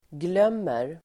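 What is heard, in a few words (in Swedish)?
"glömmer", Swedish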